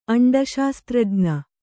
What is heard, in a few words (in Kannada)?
"ಅಂಡಶಾಸ್ತ್ರಜ್ಞೆ", kannada